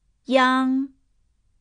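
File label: "iang", Mandarin Chinese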